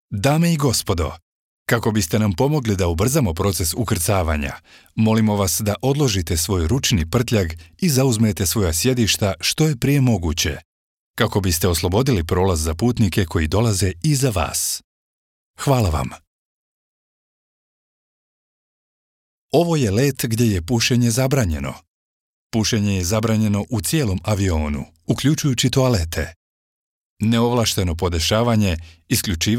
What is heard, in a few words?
Bosnian voice over system announcements